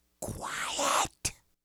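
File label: "quiet", English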